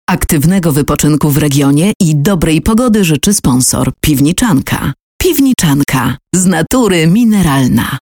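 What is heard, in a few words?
voice female advert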